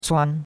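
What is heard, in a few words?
"cuan1", Chinese; Cours-de-Chinois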